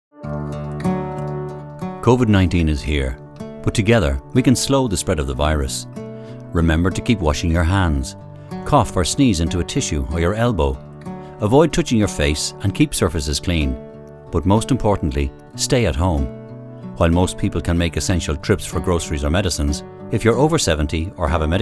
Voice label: Commercial; Covid-19